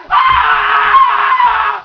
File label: mega pain